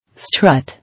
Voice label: "Strut", English